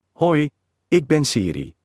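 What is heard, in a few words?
Dutch; iOS Sounds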